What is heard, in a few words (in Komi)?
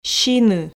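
"сины", Komi, female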